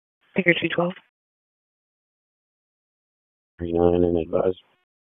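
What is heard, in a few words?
Police District Dispatch; Raleigh; Northeast; wake; p25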